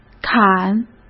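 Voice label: "砍", Mandarin Chinese; chinese-flashcards; hsk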